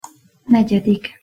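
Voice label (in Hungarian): "negyedik", Hungarian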